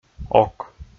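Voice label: "ок", Komi-Zyrian, male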